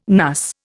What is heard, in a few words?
"NUS", Indian